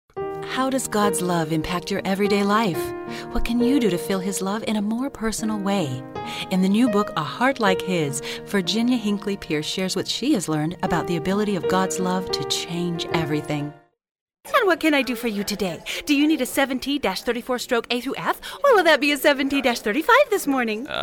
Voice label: commercial